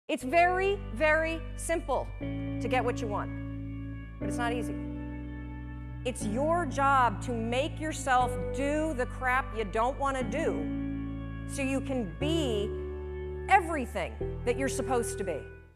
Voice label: Inspirational Speech; It's Very Simple To Get What You Want Mel Robbins